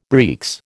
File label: "breeks", American